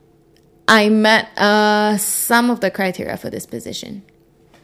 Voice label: interviewee female